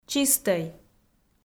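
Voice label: "чистӧй", Komi, female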